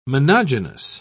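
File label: "monogynous", British English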